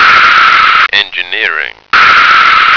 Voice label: "engineering", American